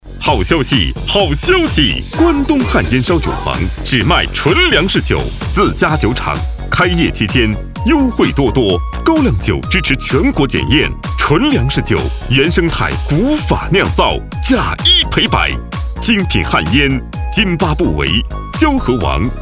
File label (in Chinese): 力度激情促销